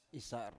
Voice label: "isar", Biak